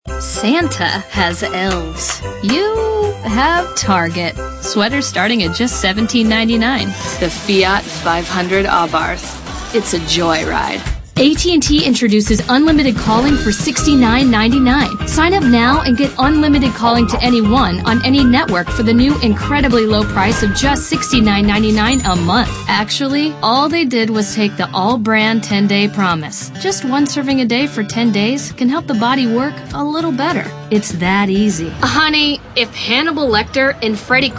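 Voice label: female, late 20s mid 30s, contemporary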